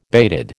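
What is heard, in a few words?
"bated", American English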